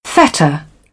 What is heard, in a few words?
"fetter", British; English